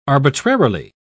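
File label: "arbitrarily", British English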